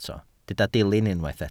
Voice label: "dilyn" Welsh

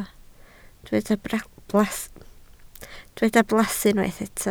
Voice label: "blas", Welsh

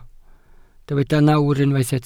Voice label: "nawr", Welsh

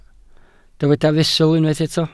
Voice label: "fesul", Welsh

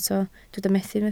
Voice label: "methu", Welsh

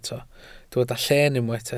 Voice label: "lleen" Welsh

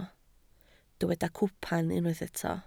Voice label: "cwpan", Welsh

